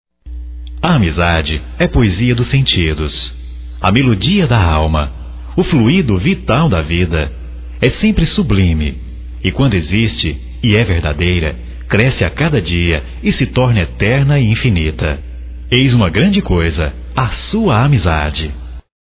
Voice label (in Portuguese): Amizade Geral Masculino